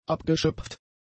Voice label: "abgeschöpft", German; adjectives